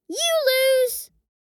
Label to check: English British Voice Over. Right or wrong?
Wrong